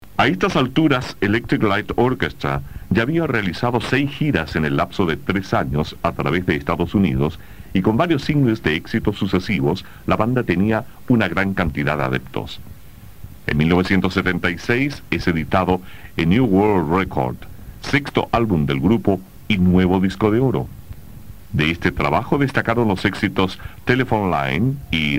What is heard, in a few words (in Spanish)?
locucion, radio; radio viva chile